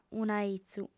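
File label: "un'aizu", Sardinian, female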